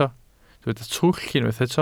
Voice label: "twll", Welsh

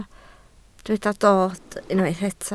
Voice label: "dod", Welsh

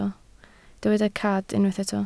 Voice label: "cad", Welsh

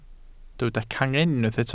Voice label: "cangen", Welsh

